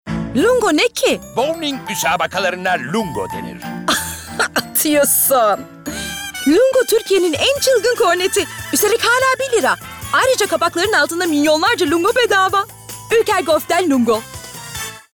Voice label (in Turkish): Komik